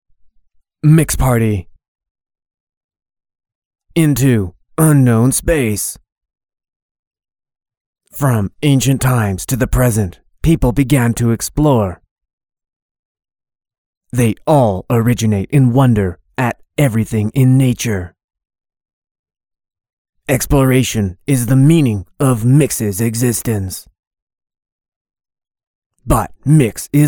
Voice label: 外籍配音美式男, 酒吧宣传, 低沉, 磁性

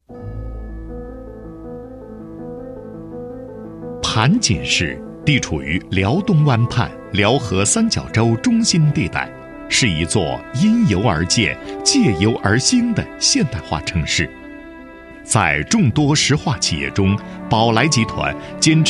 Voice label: 男 专题 低沉大气, 国语男声配音; 盘锦市

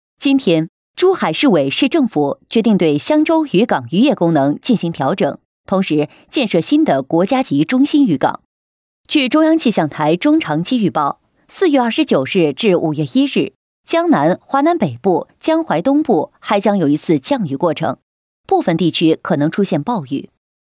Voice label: 女 专题 新闻稿, 国语女声配音

